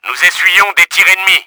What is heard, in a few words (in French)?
UNDER ATTACK, french; Battle Engine Aquila